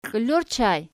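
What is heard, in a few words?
"kiili" ergative plural, Archi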